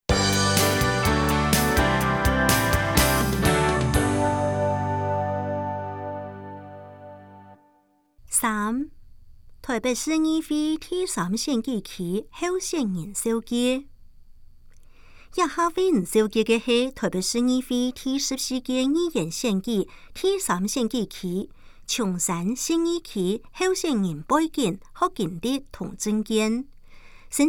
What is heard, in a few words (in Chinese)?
客語; 臺北市; 有聲公報; 市議員; 臺北市議會第14屆議員第3選舉區 (松山、信義區)候選人介紹